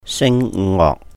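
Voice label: "sìng ngohk", Cantonese; vocal music; 聲樂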